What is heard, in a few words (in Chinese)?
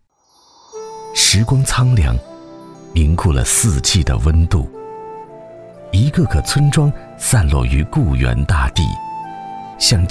男 独白 自然讲述; 白云深处有人家; 固原